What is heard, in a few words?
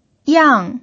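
"yang4", Mandarin Chinese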